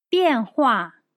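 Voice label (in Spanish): "变化", chino mandarin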